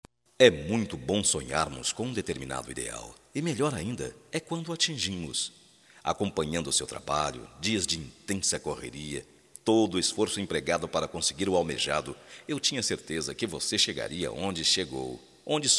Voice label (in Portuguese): VITORIA, Só Voz, masc; Telemensagens; Telemensagens 2017